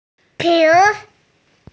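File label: "ping2", Mandarin Chinese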